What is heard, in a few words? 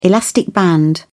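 "elastic band", British English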